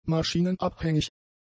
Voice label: "maschinenabhängig", German; adjectives